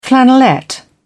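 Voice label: "flannelette", British English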